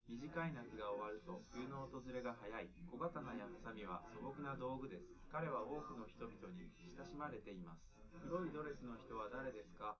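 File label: male, live recording; SiSEC11; underdetermined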